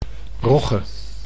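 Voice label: "rogge", Dutch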